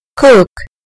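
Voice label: "cook", British English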